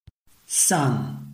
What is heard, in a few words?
"san4", Chinese